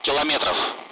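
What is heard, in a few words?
Speech, Russian; Allied Flight; DCS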